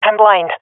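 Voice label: blinded, swat female; vo; Counter Strike Model Pack; CS:GO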